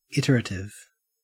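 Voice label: "iterative", Australian English; pronunciations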